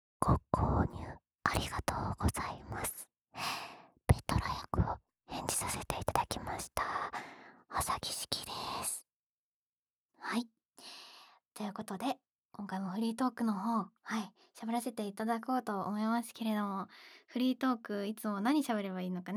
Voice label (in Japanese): フリートーク; ペトラ役; KU100